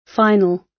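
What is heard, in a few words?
"final", British English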